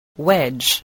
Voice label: "wedge", spelling